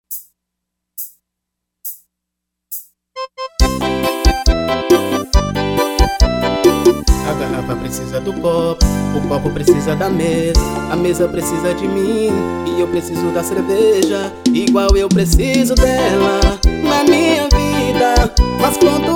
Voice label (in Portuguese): promocional